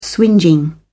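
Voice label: "swingeing", British English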